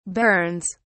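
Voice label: "bairns", British English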